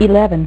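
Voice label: "eleven", female; MacCribbage; InterStellar MacCribbage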